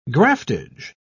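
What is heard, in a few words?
"graftage", English, British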